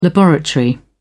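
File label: "laboratory", British English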